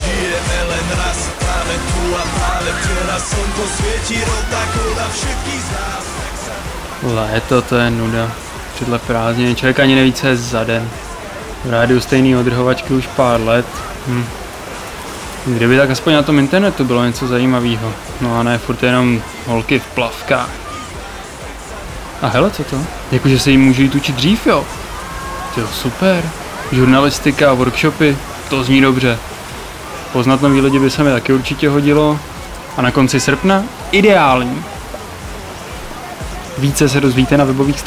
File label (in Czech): reklama